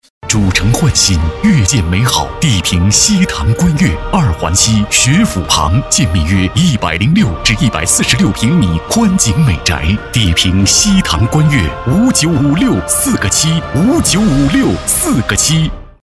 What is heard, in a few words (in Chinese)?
广告 地产 地产广告 激情, 男